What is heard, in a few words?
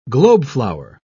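"globeflower", British English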